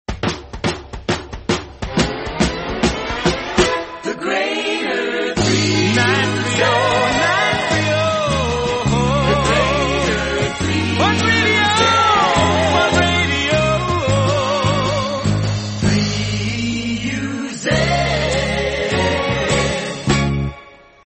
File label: australian otr promos and idents; 3uz fun radio